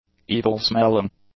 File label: "evil-smelling", English; adjectives